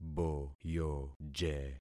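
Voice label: "boyoje", concatenated syllables; Affixing experiment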